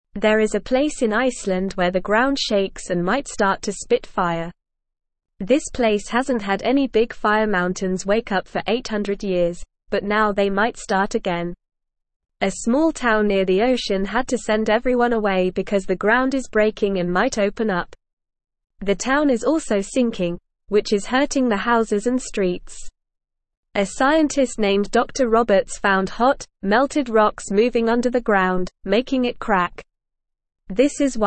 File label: English Lower Intermediate NORMAL Reading; Fire Danger in Iceland People Forced to Leave; English Newsroom